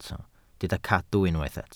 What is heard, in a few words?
"cadw", Welsh